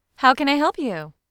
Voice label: "How Can I Help You", Female Friendly Professional